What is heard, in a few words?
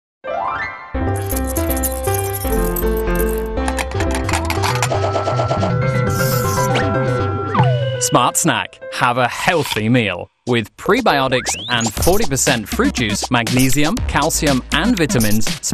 commercial smart snack, english GB